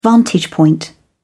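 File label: "vantage point", British English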